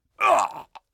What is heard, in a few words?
pain, combine soldier; hl2